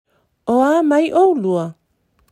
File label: How are you For two people O a mai oulua, Samoa; 2022 Pacific Language Weeks